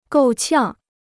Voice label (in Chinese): "够呛", Chinese